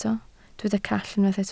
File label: "call", Welsh